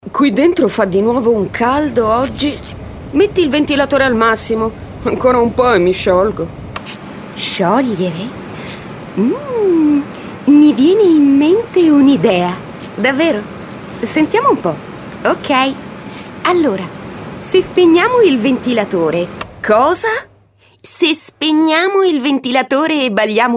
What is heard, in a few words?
speaker ita